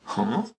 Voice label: "Huh" Male Voice